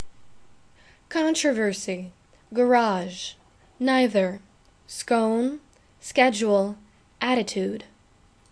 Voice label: chicago il female

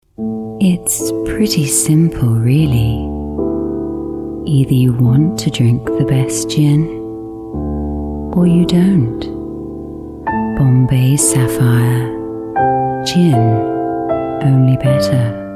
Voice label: gin commercial

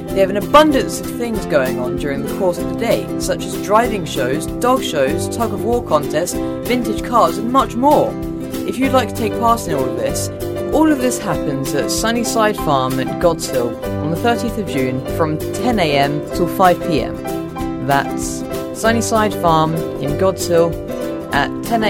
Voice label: voices, charity event promo; ventnor rotary club

